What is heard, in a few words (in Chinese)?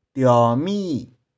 "tiau3mi6", 玉環